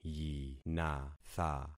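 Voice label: "yinatha", concatenated syllables; Affixing experiment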